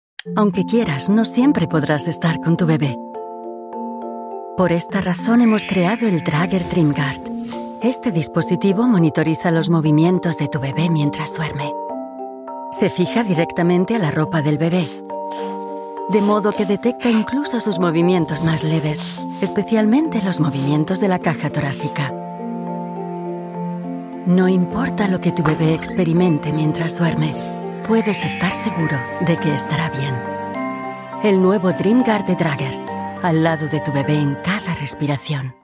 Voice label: Werbung Spanisch

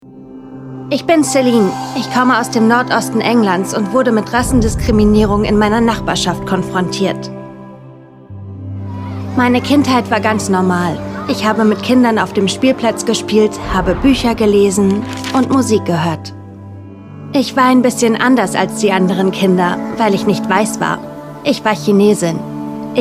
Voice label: Doku Voice Over; Die Farbe meiner Haut

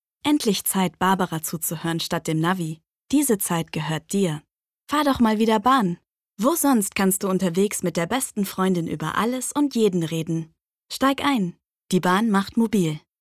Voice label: Werbung

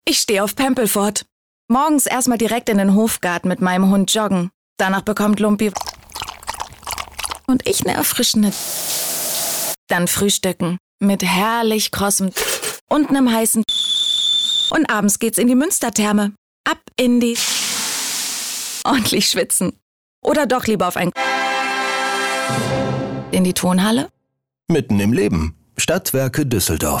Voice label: Radio Spot; Stadtwerke Pempelfort

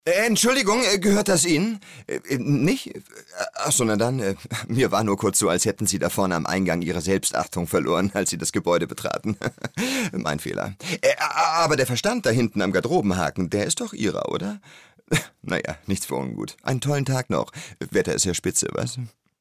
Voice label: synchron

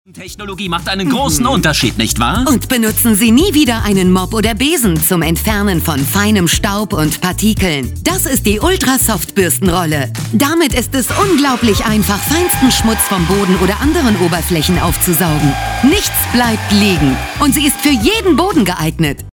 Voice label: Infomercial ueberdreht; LOFT VOICES

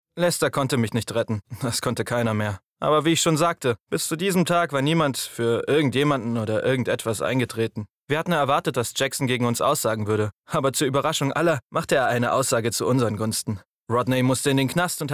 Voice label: Erzaehlung

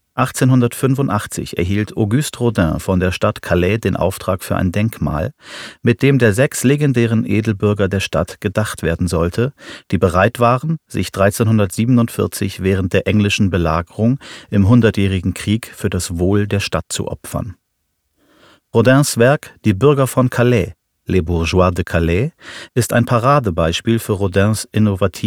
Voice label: Kunstwerk Erzaehler

